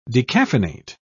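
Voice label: "decaffeinate", English, British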